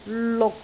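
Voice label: "luk6", Cantonese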